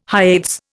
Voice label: "Hyades", Indian

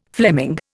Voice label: "Fleming", Indian

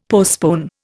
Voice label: "postpone", Indian; Dictionary

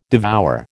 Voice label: "devour", American

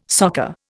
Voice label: "soccer", Indian

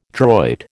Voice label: "droit", American

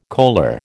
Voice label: "coaler", American

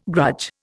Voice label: "grudge", Indian

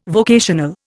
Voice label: "vocational", Indian

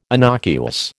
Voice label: "innocuous", American

